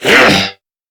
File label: Threatened Crewmen, Dialog, Barks, Battle; Warframe; CorpusCrewmen; CrewmanA